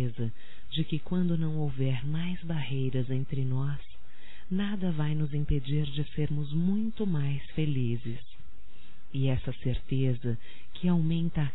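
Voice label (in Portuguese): voz, Sonho Com Você, female, Telemensagens; Telemensagens 2017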